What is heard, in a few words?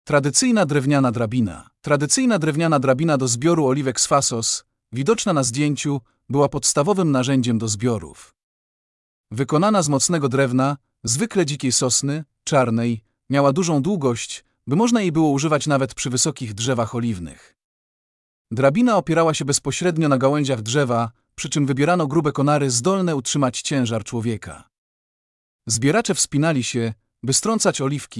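polish, Audio guided tour; Σκάλα; To przykład rolniczej tradycji i rzemieślniczej pomysłowości wyspy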